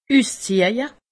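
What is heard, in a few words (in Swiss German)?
"usziehje", Alsatian; medecine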